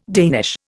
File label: "Danish", Indian